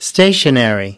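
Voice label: "stationary", American English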